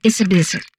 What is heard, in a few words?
humanoid female; Stellaris; humanoids